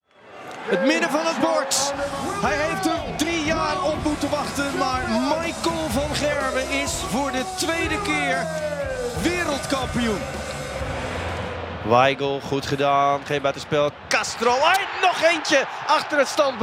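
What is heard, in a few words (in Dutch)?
Broadcast Live sportcommentaar TV, Voice-over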